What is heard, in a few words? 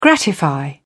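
"gratify", British English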